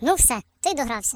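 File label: "Gonna get you", British; hedgewars; Default uk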